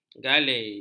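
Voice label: "galei", Malay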